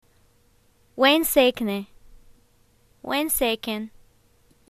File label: wheredoyoulive, arabic